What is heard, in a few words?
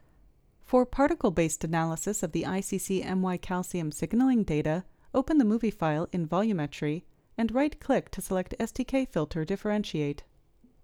Voiceover